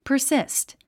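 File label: "persist", English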